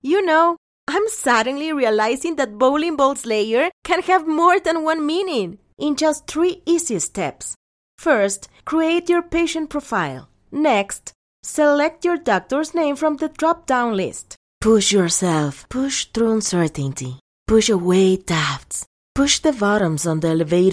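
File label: female, Ecuadorian Spanish, accented English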